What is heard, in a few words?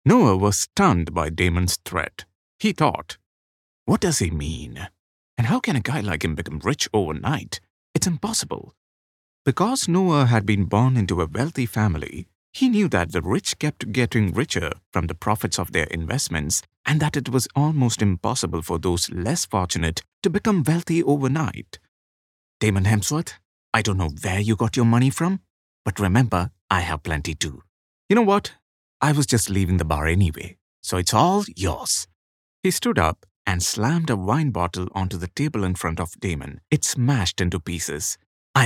audiobook